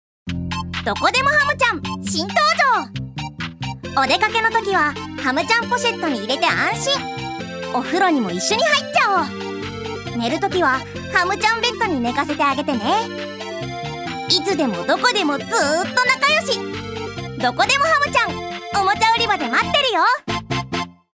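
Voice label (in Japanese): ナレーション, 女性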